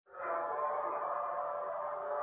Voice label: Voice Note